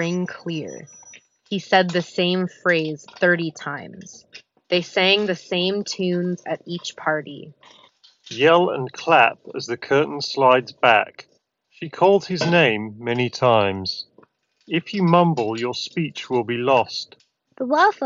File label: Apple AirPods Pro Office microphone sample